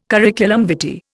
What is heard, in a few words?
"curriculum vitae", Indian